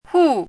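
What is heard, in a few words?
"hù", Chinese; 姱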